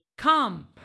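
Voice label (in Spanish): Voice Acting, Spanish, female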